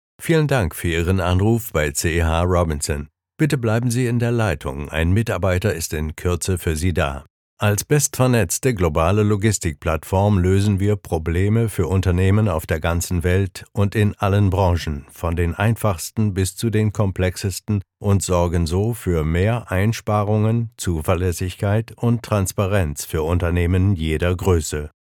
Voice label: german ivr